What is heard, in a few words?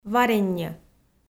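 "варенньӧ", Komi, female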